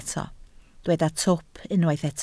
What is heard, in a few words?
"twp", Welsh